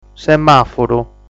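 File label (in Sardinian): "Semàfuru", Sardinian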